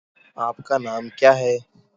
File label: voice message